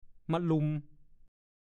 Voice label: "มะลุม", ภาษาโคราช; การละเล่นของเด็กชนิดหนึ่ง

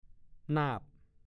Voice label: "น่านาง", ภาษาโคราช; 1. น้าสะใภ้

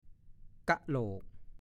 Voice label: "ก้ะโหลก", ภาษาโคราช

